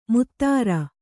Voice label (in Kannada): "ಮುತ್ತರ", Kannada